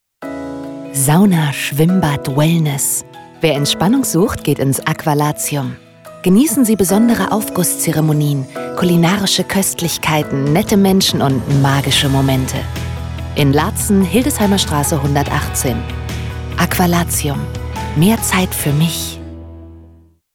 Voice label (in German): Funkspot; Aqualaatzium